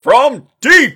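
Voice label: from deep, basketball announcer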